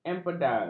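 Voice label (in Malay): "empedal", Malay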